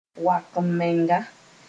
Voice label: "wak-m-menga", Mooré; boastful person

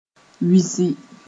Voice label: "wisi", Moore

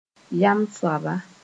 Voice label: "yam-soaba", moore

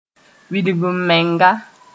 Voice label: "wilg-m-menga", Moore

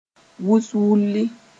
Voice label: "wuswulli", moore; fog

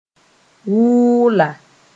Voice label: "wʋʋla", moore

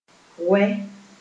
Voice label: "wɛ", Moore

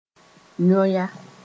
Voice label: "yõya", moore

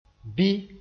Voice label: "bɩ", moore; consequently

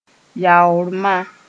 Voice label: "yaoor-ma", moore

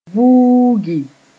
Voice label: "vuugi", moore

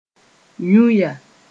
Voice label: "yũya", moore

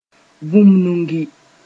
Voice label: "vũmnugi", moore; start workingengage to do

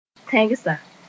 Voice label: "tẽgsa", Moore

